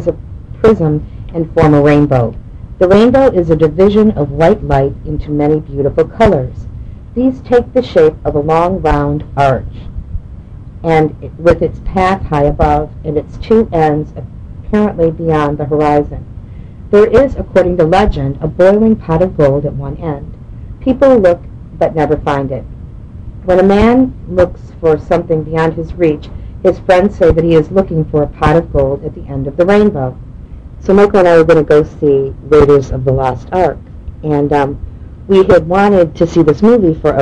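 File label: michigan, International Dialects of English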